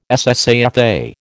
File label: "SSAFA", American